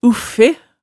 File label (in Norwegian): "uffe", hemsedalsmaalet tale